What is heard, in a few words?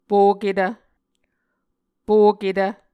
"poketv", Muscogee